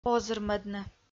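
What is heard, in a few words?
"озырмӧдны", Komi, female; kpv; audio dict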